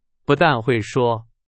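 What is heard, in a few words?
Chinese, example sentence; hsk2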